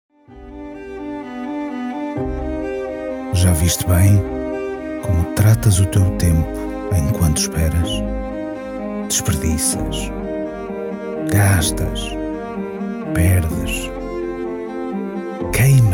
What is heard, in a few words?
commercial promo PT; Check-in-point